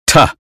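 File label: "town", American English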